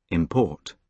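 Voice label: "import", British English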